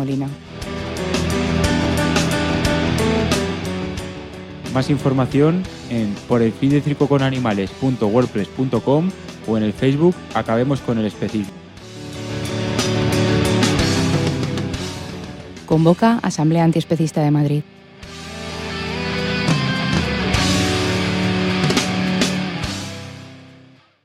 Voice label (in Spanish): cuña circo